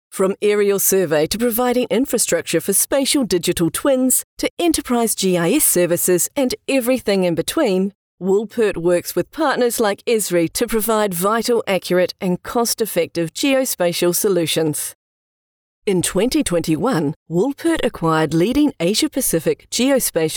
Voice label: Corporate Video; New Zealand professional female voice over actor; Woolpert